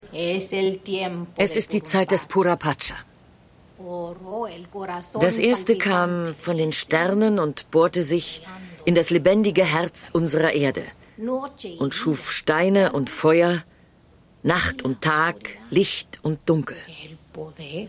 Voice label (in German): Uebersetzungsstimme; PSI Factor: Es geschieht jeden Tag